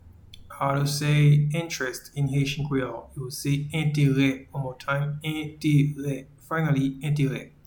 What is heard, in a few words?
Interest in Haitian Creole Entere